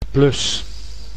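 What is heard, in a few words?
"plus", Dutch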